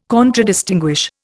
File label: "Contradistinguish", Indian